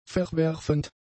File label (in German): "verwerfend", German; adjectives